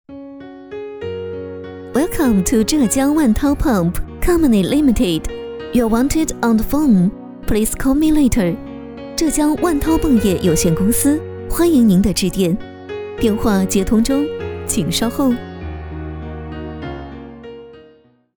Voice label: 女 客服彩铃 中英文版 温柔甜美

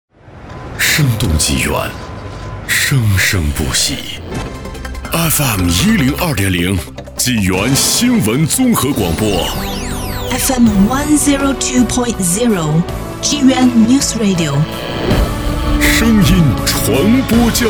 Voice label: 电台频宣 科技内敛; 济源新闻综合广播

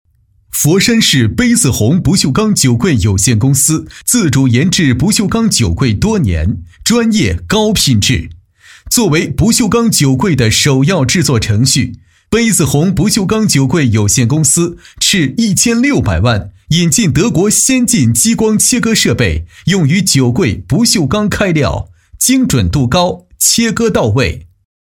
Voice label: 男 品牌宣传 配音; 佛山市杯子红不锈钢酒柜

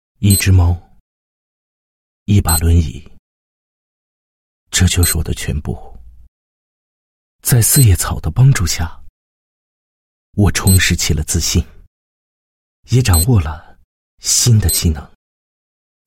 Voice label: 男-独白; 徐某独白; 内心独白; 四叶草宣传

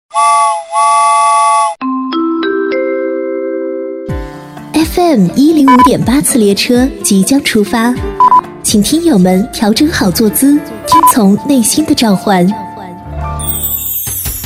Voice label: 女, 片花; 快乐车友帮

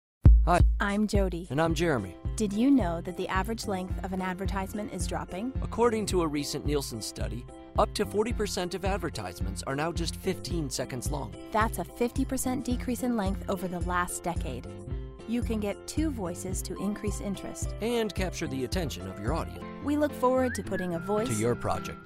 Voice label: 外籍英女 男广告女

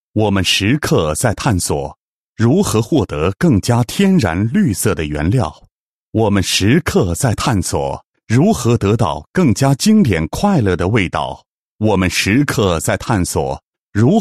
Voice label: 男 男高音 专题; 国语男声配音; 米老头

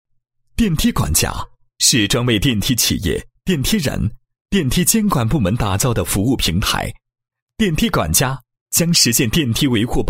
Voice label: 男 企业介绍 电梯管家 服务平台

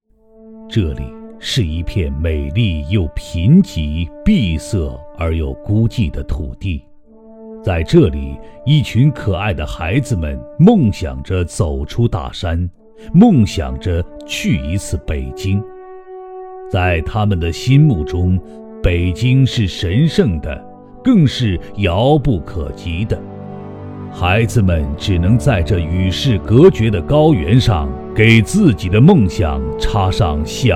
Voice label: 男 专题片 解说 低沉大气, 国语男声配音; 梦想

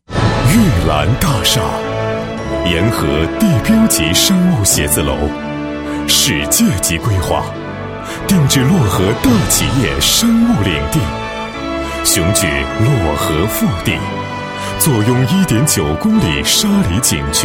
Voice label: 男 专题 宣传介绍 大气, 国语男声配音, 浑厚; 玉兰大厦; 浑厚专题

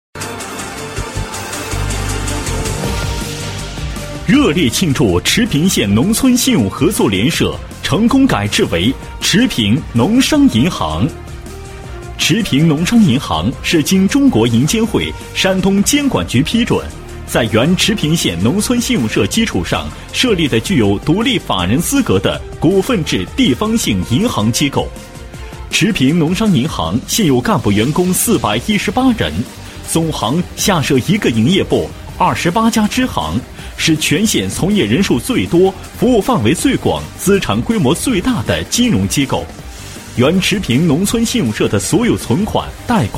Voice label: 男 广告, 国语男声配音 大气浑厚; 茌平农商行

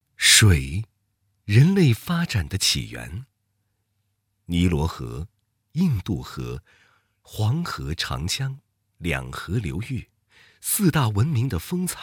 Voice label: 男 地区宣传; 品味好地方—沙湾

